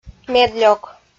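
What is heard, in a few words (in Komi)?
"медлёк", Komi-Zyrian, female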